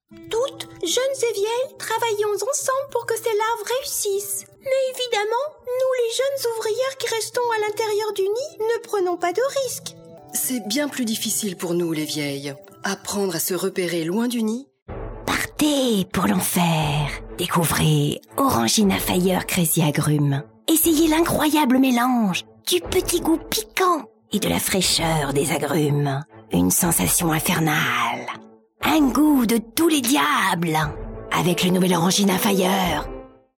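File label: French France, female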